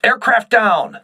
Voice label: voice message aircraft destroyed, crew ships english